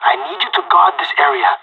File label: radio bot hold, pirate; vo; Counter Strike Model Pack; CS:GO